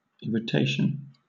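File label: "irritation", British English; pronunciations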